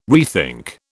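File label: "rethink", British English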